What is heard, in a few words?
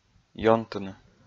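"ёнтыны", Komi, male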